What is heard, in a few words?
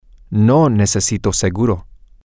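Spanish, male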